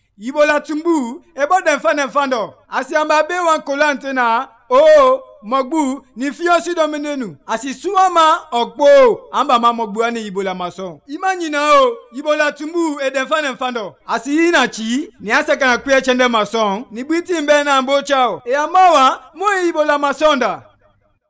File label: Kono, radio spots; ebola; vhf